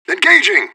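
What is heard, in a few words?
radio bot response attacking; fbihrt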